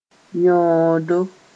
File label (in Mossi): "yõodo (sen tar)", moore